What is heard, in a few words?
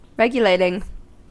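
"regulating", American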